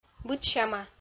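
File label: "быдсяма", Komi-Zyrian, female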